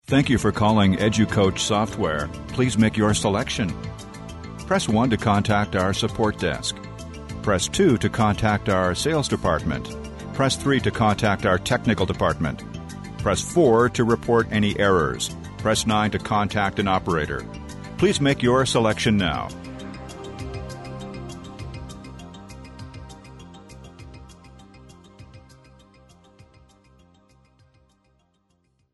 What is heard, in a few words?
male US telephone; uk sound studios